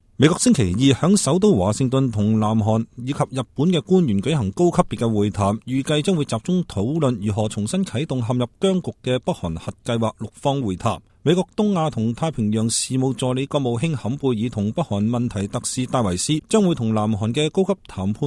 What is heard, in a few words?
US Korea, cantonese